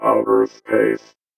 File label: VOICEFX ELCTR 140; Techno2